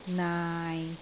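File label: "naai6", Cantonese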